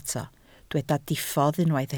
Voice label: "diffodd", Welsh